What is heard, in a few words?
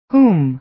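"whom", American English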